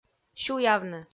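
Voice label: "сюявны", Komi, female; kpv audio dict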